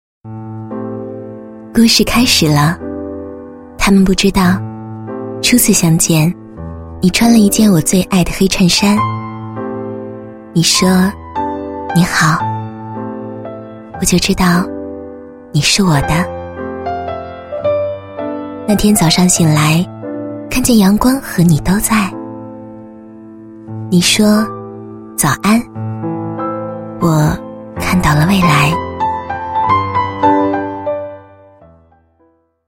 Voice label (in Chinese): 国语女声配音 旁白 甜蜜 幸福; 爱情 婚礼沙画